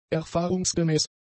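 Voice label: "erfahrungsgemäß", German; adjectives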